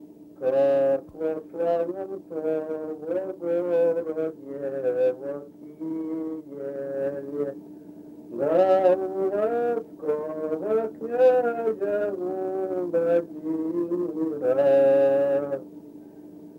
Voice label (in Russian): Речевой портрет, мезень